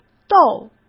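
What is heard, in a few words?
"斗", Mandarin Chinese; hsk; chinese-flashcards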